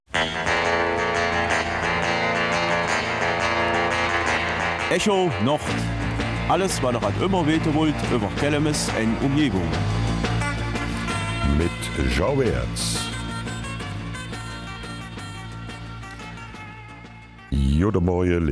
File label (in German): Kelmiser Mundart; 1871 Kelmis-La Calamine